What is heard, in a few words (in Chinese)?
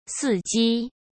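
"伺机", Mandarin Chinese; chinese flashcards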